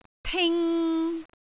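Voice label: "ting3", Cantonese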